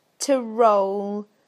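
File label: "to roll", British English; PictureDictionary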